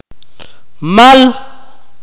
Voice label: "flow", Akateko